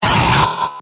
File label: pain sharp; demoman; mvm